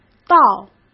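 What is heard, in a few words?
"到", Mandarin Chinese; hsk; chinese-flashcards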